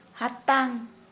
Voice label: Santali brain

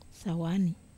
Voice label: "sawani", Wamesa

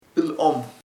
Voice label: "kind amable", chamacoco

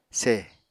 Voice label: "seh", Apatani

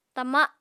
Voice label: "tama", apatani

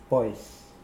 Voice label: "pwoas", Mokilese

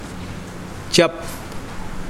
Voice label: Mokilese cheek

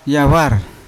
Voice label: "yavar" house, Yawa

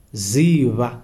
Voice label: "know", Kalanga

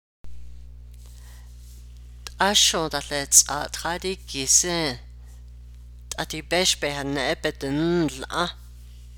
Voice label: navajo; At least you could wash the dishes you are still

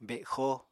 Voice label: "bexo", Apatani

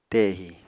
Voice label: "tehi", apatani

